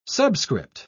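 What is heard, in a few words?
"subscript", British English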